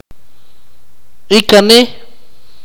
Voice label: mother's younger brother, akateko